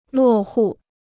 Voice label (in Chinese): "落户", Chinese